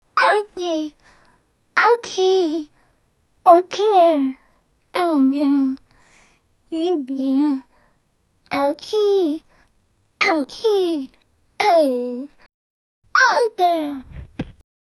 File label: a happy girl saying, affirmation